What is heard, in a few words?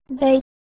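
"dh2i", Proto-Indo-European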